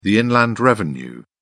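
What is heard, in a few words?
"inland revenue", British English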